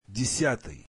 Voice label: russian tenth